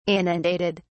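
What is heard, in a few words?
"inundated", English, uk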